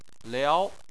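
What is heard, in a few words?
"liao" second tone, Chinese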